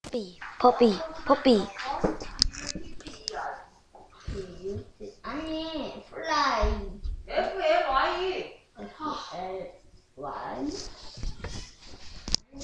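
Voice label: "puppy", spoken word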